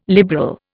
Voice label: "liberal", Indian

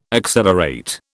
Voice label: "accelerate", British

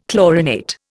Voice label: "chlorinate", Indian

